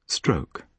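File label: "stroke", British English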